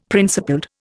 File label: "principled", Indian; Dictionary